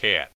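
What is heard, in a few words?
"cat", American English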